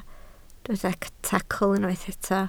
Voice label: "tacl", Welsh

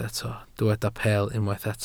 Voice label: "peel", Welsh

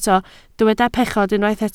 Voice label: "pechod", Welsh

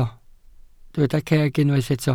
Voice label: "ceg", Welsh

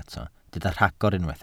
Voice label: "rhagor", Welsh

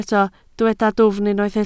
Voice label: "dwfn", Welsh

